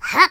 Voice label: Jump, Pirate; hedgewars